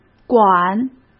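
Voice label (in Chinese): "管", Mandarin Chinese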